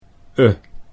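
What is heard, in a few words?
e uh, Dutch Pronunciation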